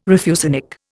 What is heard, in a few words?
"refusenik", Indian